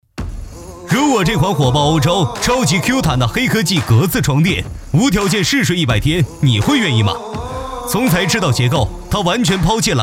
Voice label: 信息流 床垫

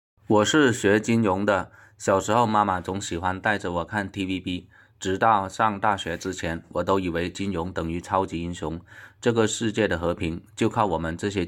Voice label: 广西特色普通话

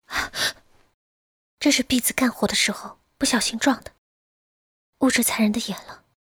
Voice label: 柔弱少女音古风

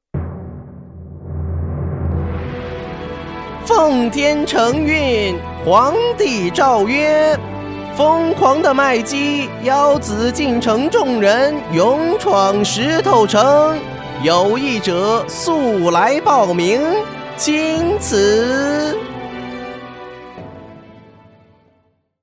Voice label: 角色 太监

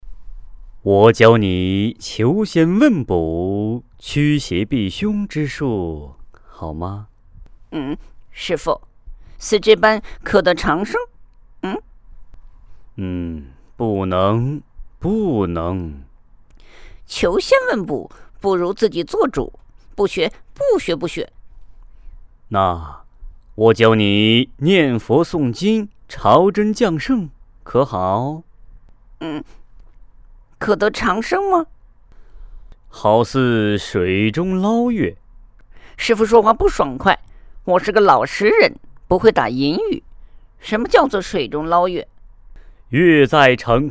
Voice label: 模仿配音

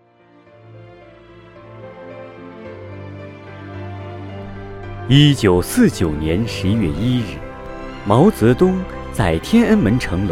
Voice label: 纪录片 配音

